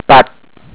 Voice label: "pat, peh", Holooe